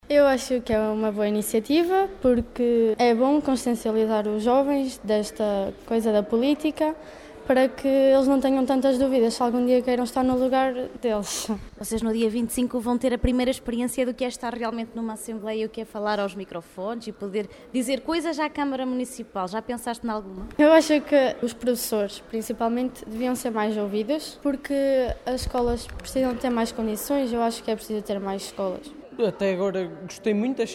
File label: vox pop